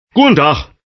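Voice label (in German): "gudentach", German; css; skyesports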